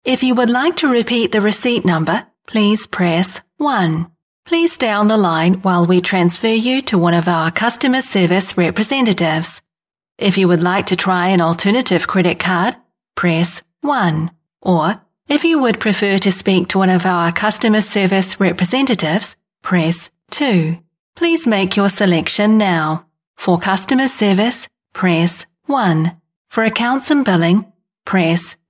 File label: ivr